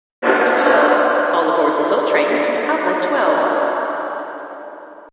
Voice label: announcer